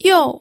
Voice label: "you4", pinyin